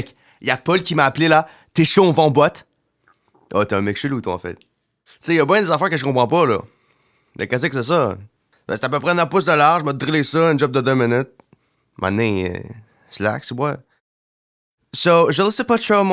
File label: Animation FR